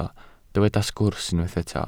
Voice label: "sgwrs" Welsh

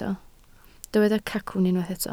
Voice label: "cacwn", Welsh

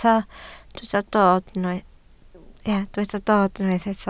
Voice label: "dod", Welsh

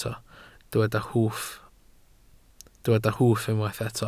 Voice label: "hwff" Welsh

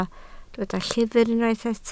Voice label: "llyfr", Welsh

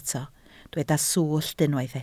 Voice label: "swllt", Welsh

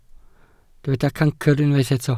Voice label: "cancr", Welsh

